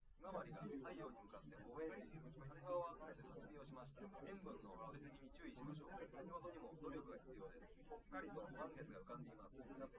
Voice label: test male liverec 250ms 5cm sim; SiSEC08; SiSEC underdetermined